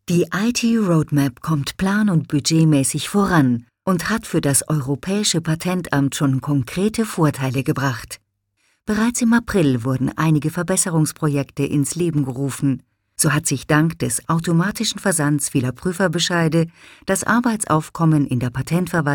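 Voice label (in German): Erklärfilm; sprecher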